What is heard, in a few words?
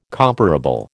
"comparable", American